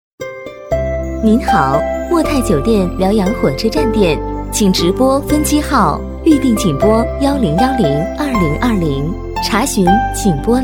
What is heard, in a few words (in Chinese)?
女 彩铃 甜美温婉 酒店, 国语女声配音; 莫泰酒店